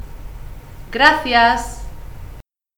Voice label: espagnol "Gracias"

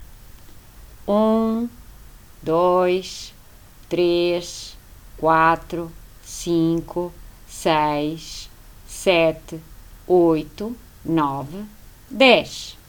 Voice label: portugais Nbres 1 a 10

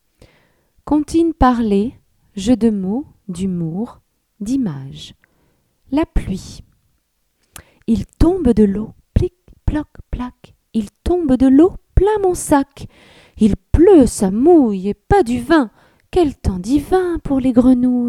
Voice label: La Pluie Il Tombe De L eau Comptines Parlees Jeux De Mots D humour D images

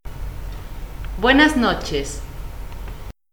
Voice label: espagnol Buenas noches

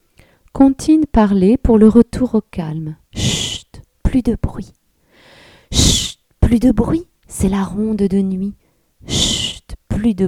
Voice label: Chut Plus De Bruit Comptines Parlees Pour Le Retour Au Calme

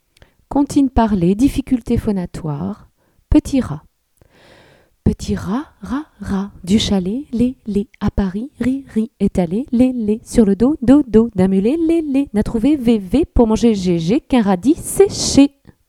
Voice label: Petit Rat, Comptines Parlees; Difficultes Phonatoires

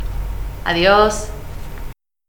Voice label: espagnol "Adios"

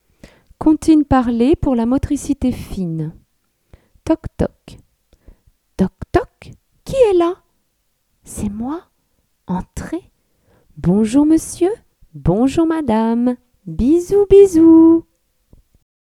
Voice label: Toc Toc, Comptines Parlees; Pour La Motricite Fine

